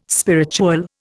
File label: "spiritual", Indian